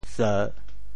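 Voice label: "seu3", Teochew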